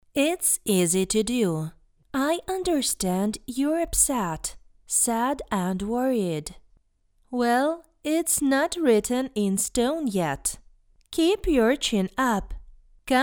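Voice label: English; Klinika po peresadki volos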